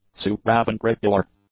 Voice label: "supraventricular", English; adjectives